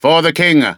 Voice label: english Military; age2